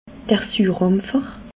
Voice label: "surampfer", alsace

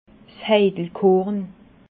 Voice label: "heidelkorn", alsace

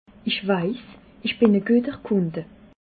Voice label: "kunde", alsace

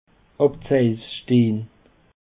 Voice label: "abseitsstehn", Alsace

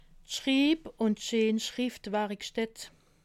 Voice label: "schrib", alsace

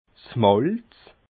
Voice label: "malz", alsace

